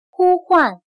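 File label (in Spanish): "呼唤", chino mandarin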